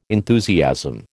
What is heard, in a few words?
"enthusiasm", American